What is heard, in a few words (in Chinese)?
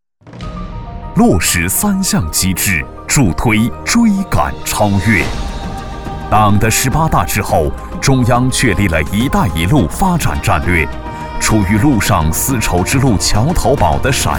男 党政专题 大气